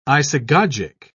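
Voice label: "isagogic", British English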